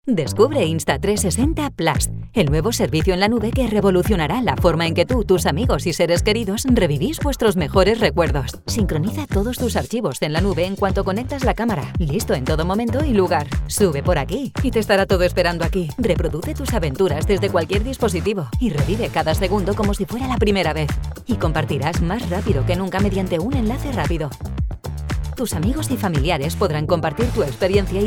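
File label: European Spanish, Commercial; GoLocalise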